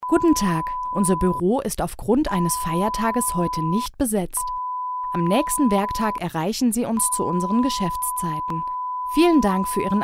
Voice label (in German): Sprecherin piep Ansage